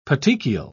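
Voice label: "petechial", British English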